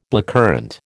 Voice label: "blackcurrant", American